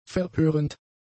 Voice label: "verhörend", German; adjectives